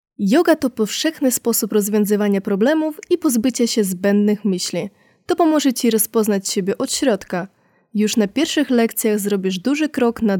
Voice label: 波兰语女年轻知性

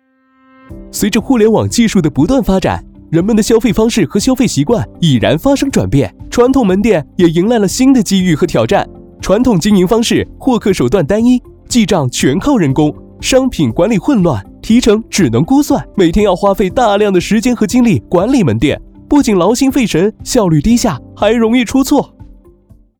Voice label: 国语 产品

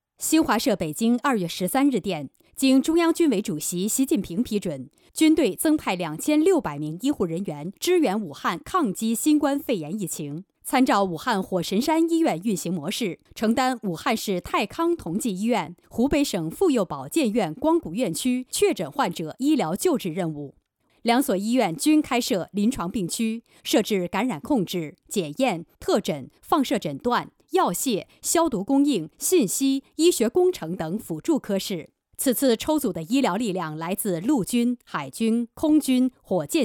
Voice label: 军事新闻类

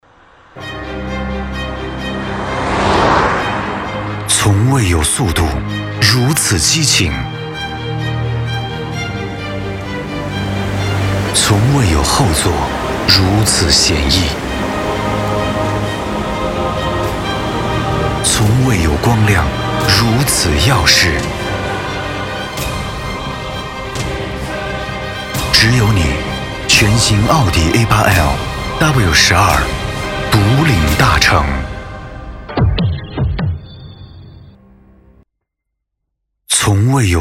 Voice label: 广告 高端广告配音; 奥迪

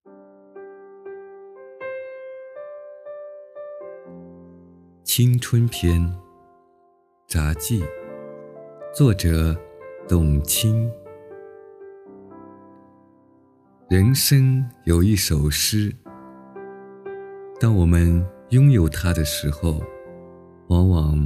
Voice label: 青春札记, 磁性男声

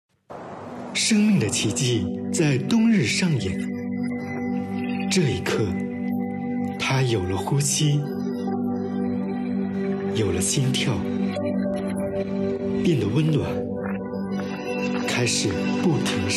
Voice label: 广告配音; 良宫藏宜家广告配音

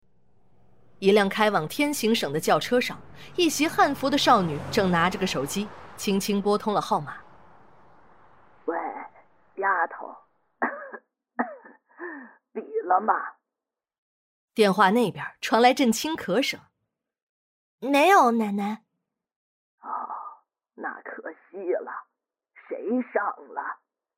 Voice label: 老妇与女子

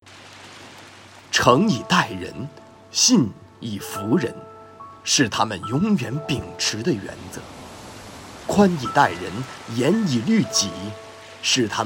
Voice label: 颁奖配音

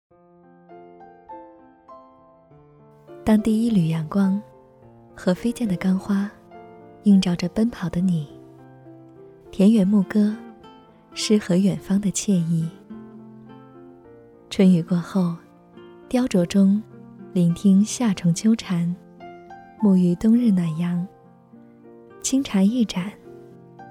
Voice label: 女宣传片柔和自然

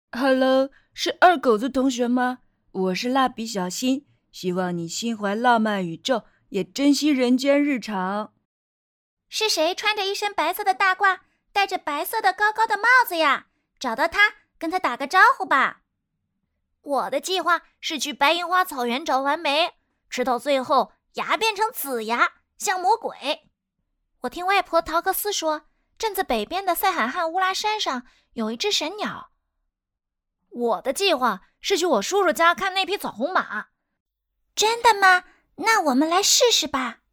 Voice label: 男女儿童角色音集合

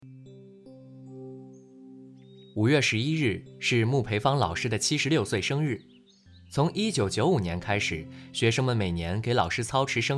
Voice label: 新闻专题

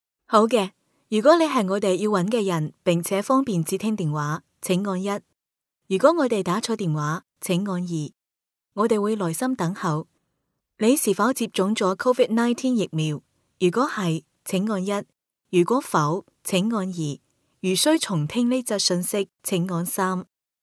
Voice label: 粤语海外华人地区粤语通话

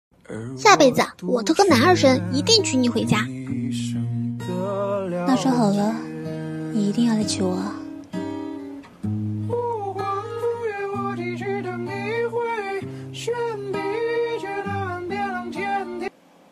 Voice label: 少女与御姐

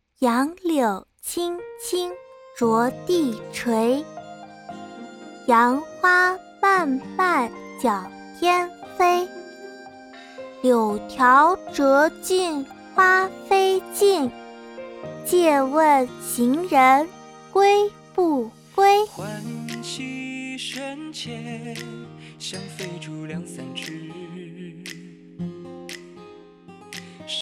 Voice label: 古代傻兮兮的小女孩

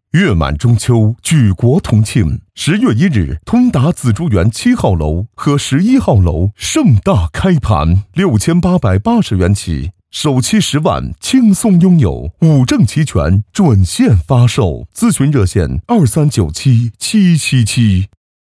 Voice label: 地产广告; 紫竹园

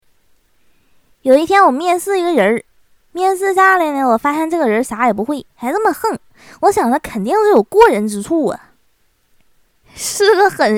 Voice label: 东北搞笑版女; 西施

